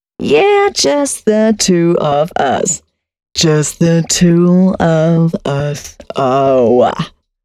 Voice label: female spoken hooks, dance ultra voice

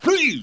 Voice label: "Three", Yamaha DD-7

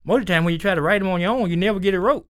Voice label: SPOKEN, Blues Soul, TALK; Spectrasonics; Vocal Planet; EL SPOKEN

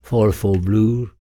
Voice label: talk, spoken, blues soul

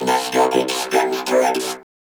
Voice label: VOCODER VOX; Masterbits - Tekkno Trax

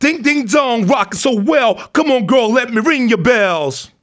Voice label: RAP PHRASE; RAPPER 1-2

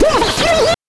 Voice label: "CAMERA", VOX; NES DPCM; MIDINES

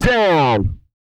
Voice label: down, Vocal, R&B Dance, hip-hop; TB HIP-HOP

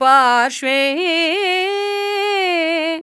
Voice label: IND SNSKRT; Spectrasonics; Heart of Asia; INDIAN SET

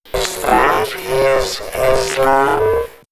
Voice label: Man Machine, robot vox; Is Dat His Islam?; X-Static Goldmine

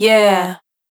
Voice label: "Yeah" Dry, vocal samples; Words

